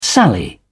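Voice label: "sally", British English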